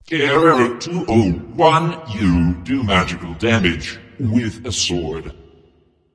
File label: Taunt Directed; Mech Geb; Ares; Smite